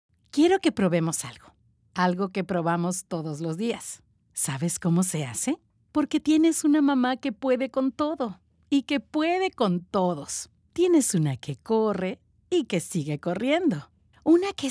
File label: female spanish peruvian narration; devine voices